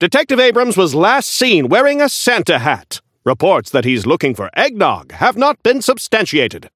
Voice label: Newscaster; seasonal atlas unlock